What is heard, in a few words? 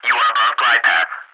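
you are above glide path, English ATC Messages; DCS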